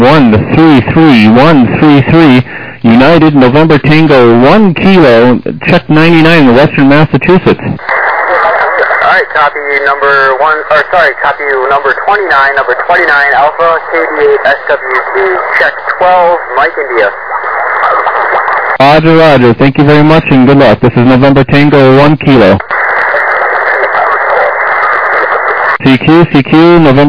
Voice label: USB 14MHz